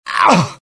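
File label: death, dialogue generic Italian; mohaa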